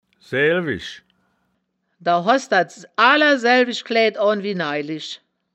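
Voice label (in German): "saeaelwisch", wittlicher woerterbuch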